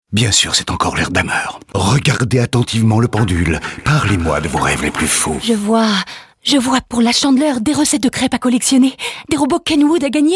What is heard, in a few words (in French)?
pub comedie; pubcomedie-leerdamer